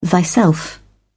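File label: "thyself", British English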